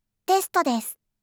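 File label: "test desu", Japanese; system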